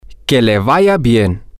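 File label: farewell, spanish, male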